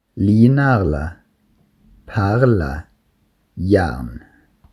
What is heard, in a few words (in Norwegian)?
vokalen e rl bergen